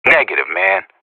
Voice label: radio bot reponse negative, professional; player vo